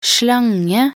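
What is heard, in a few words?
"slange", Norwegian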